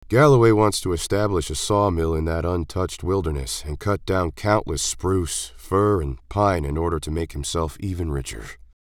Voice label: Midwest Accent